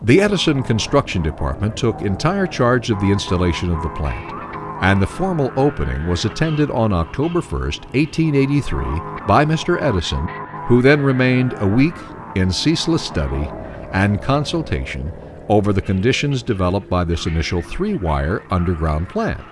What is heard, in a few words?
soundstream controllable enhancement noisy